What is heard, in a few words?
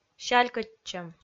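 "сялькӧдчӧм", Komi, female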